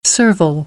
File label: "servile", American English; aɪ - ə